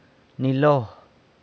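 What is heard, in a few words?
"nilo", jealous, San Lucas Quiaviní Zapotec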